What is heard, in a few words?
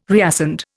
"reascend", Indian